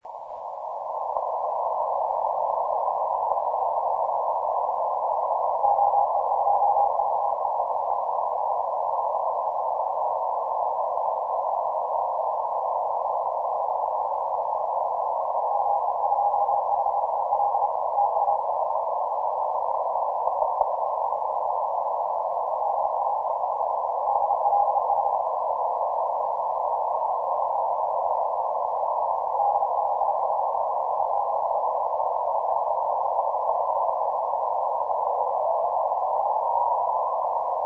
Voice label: 80M CW